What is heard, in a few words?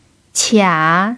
"qia3", chinese pinyin